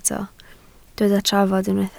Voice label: "trafod", Welsh